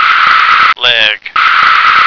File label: "leg", American